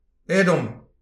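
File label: "aet omp", Breton; petit precis de prononciation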